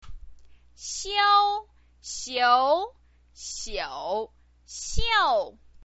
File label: "xiu", Chinese pinyin voice